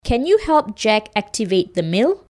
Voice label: narrator

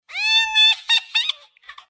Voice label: mama PITCH SAD

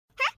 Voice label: "huh", pitch

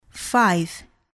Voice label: "five" english

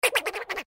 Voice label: cartoon mumble

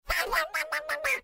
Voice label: cartoon angry

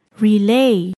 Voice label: "relay"

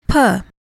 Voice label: p sound

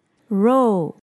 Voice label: "raw"

